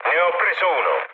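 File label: Italian player killed enemy mood low, speech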